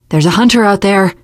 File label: heard hunter, teen girl, voice; survivor voice